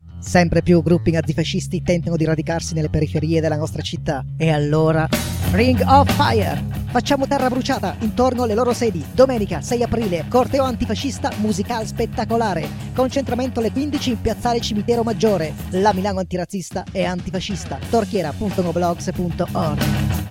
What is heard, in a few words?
Spot